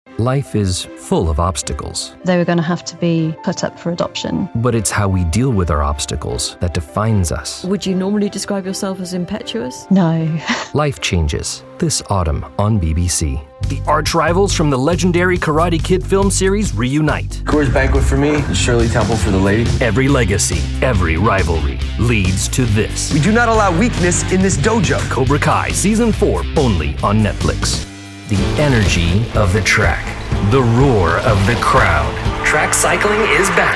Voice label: TV Promo; VO Pro Cast